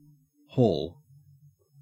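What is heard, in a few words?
"haul", Australian English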